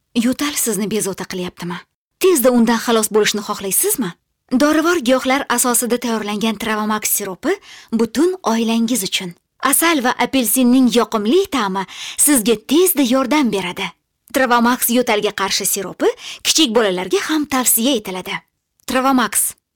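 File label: Uzbek, voice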